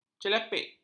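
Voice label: "celepik", Malay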